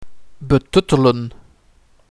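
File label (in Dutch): "Betuttelen", Dutch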